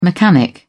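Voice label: "mechanic", British English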